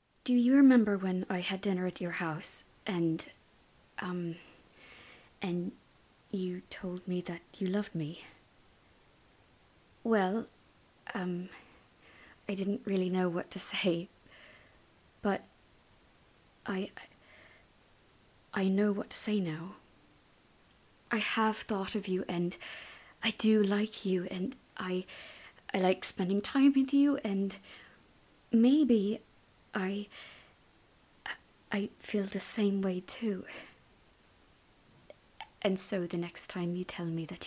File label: female irish accent; irish southern; extract of brooklyn monologu